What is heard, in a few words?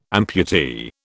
"amputee", British